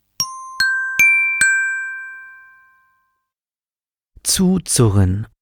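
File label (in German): "zuzurren", German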